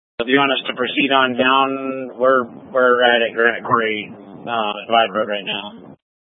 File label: Incident Tac 1, scanner